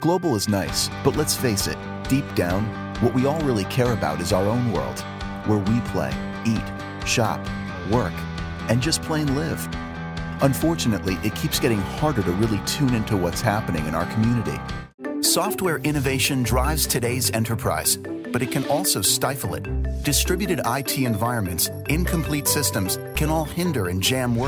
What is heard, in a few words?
Narration